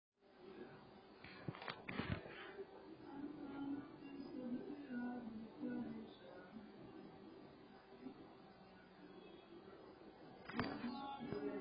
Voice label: Voice Call voice